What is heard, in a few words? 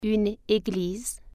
"church", French